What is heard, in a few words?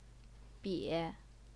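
"bie", Mandarin Chinese, third tone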